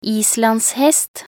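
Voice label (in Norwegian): "islandshest", Norwegian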